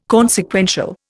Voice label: "consequential", Indian